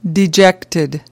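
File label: "dejected" US English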